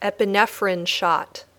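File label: "epinephrine shot", English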